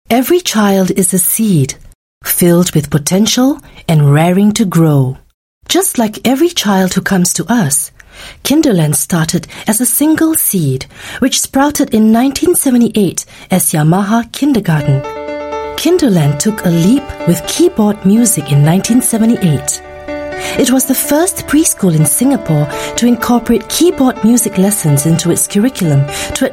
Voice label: Asian English Docu; kinderland